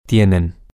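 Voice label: Spanish, they have masculine, male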